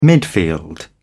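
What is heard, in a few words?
"midfield", British English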